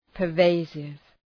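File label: "pervasive", English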